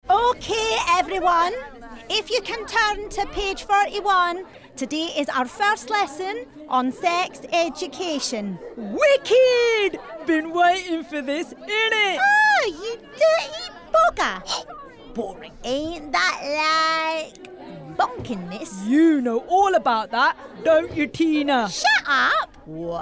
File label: Character; Scottish Teacher & Classroom